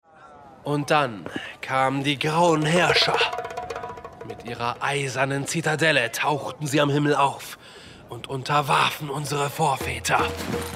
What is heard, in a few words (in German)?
Sprechprobe Puppenspieler Hörspiel; Narbenhaut